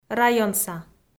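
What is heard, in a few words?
"районса", Komi, female; kpv; audio dict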